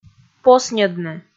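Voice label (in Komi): "посньӧдны" Komi, female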